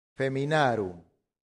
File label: "feminarum", latin; santillana